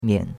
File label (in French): "mian3", chinois; Cours-de-Chinois